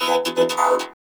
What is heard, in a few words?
VOCODER VOX; Masterbits - Tekkno Trax